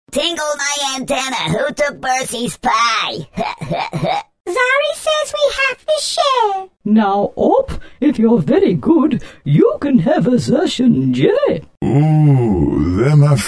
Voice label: Animation interactive characters